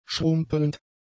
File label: "schrumpelnd", German; adjectives